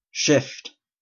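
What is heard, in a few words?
"shift", Canadian English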